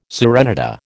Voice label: "serenata", American

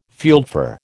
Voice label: "fieldfare", American

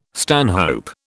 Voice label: "stanhope", British

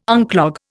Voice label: "unclog", Indian

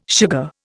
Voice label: "sugar", Indian

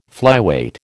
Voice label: "flyweight", American

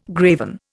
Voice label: "graven", Indian

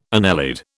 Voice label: "annelid", British

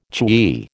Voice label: "chewy", American

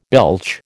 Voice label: "belch", American

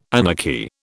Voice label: "anarchy", British

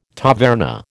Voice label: "taverna", American

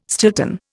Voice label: "Stilton", Indian

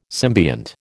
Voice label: "symbiont", American

